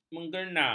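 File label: "mengerna", Malay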